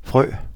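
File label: frog, Danish; tuxpaint-stamps; animals; amphibians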